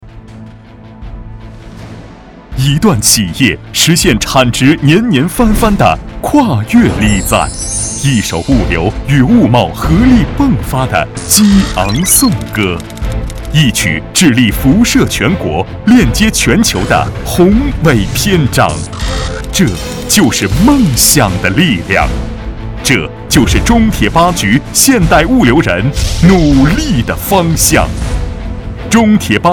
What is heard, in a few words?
Mandarin male